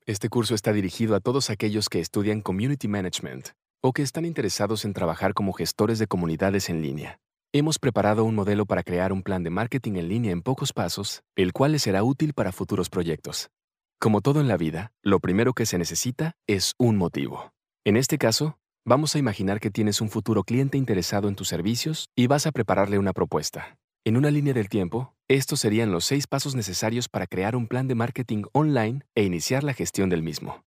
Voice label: latin spanish male educational narration